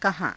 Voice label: "kahaa~", Hindi

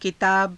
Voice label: "kitaaba", Hindi

